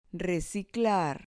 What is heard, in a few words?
"reciclar", Spanish